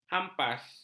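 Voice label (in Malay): "hampas", Malay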